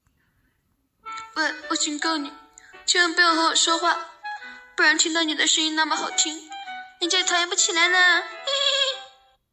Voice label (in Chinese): 模仿蜡笔小新的声音